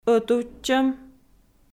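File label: "ӧтувтчӧм", Komi, female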